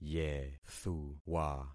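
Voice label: "yethuwa", concatenated syllables; Affixing experiment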